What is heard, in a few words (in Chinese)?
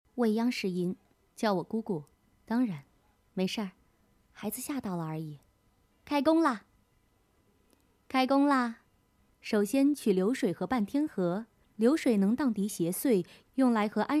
女 游戏动漫 御姐音